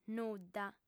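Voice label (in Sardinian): "nudda", female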